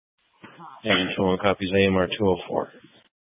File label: Fire Departments Mesa Simulcast; TOPAZ